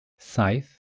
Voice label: "saith", Welsh